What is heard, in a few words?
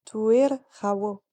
civ female gather, napatan